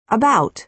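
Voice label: "about", American English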